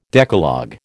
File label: "Decalogue", American